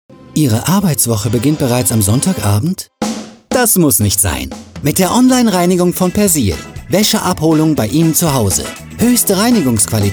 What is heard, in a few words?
german commercial; waesche